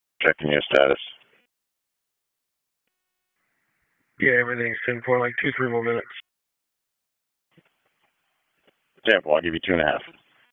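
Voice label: EMS Dispatch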